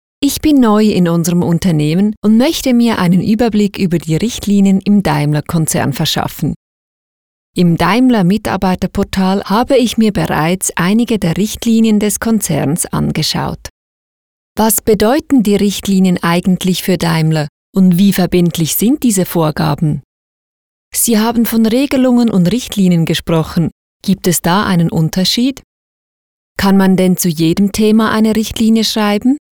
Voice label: eLearning; Daimler

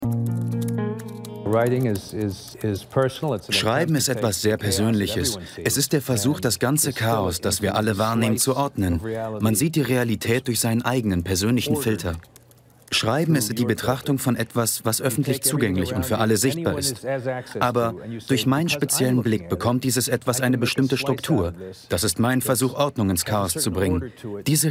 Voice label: Voice Over; Arte

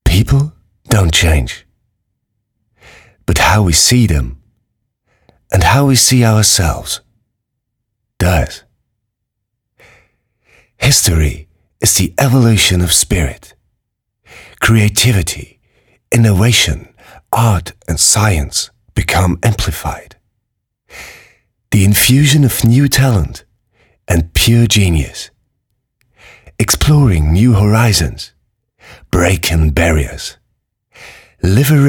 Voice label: english

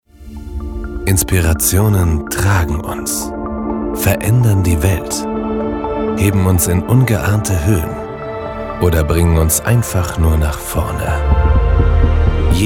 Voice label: Commercial; Proton

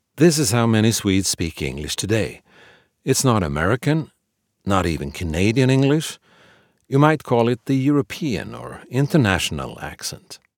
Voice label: International English

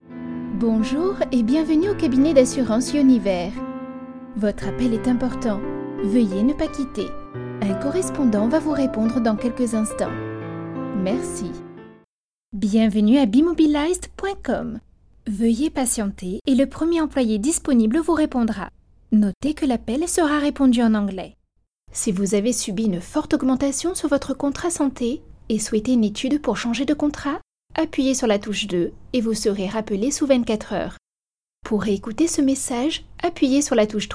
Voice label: IVR

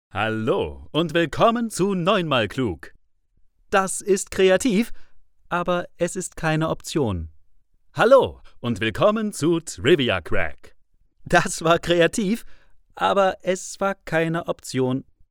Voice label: Voice Acting Game

